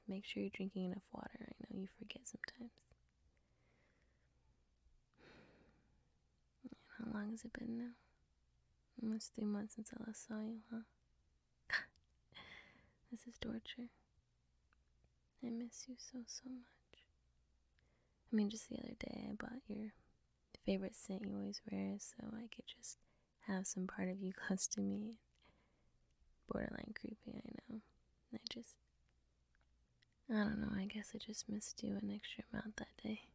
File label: girlfriend leaves a voicemail; F4A